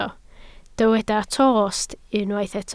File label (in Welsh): "tost", Welsh